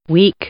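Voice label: "weak", English; adjective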